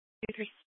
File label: Superstition Dispatch, Mesa PD; 4 Superstition Dispatch